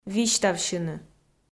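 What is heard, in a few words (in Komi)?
"висьтавсьыны", Komi, female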